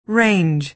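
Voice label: "range", American English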